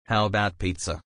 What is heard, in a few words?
male